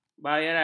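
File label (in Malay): "bayaran", Malay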